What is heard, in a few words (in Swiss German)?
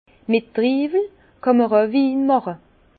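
"triwel", Alsace Bas Rhin; vin; avec des raisins peut aussi faire du vin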